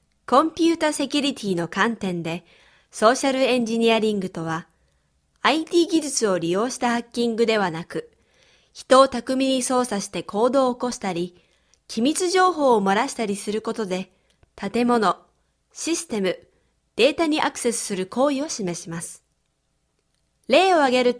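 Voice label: Japanese elearning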